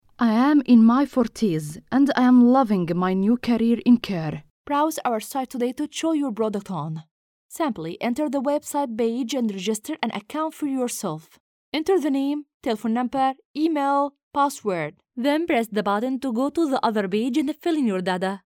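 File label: Arabic Saudi Arabia, female, voice over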